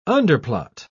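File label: "underplot", English, British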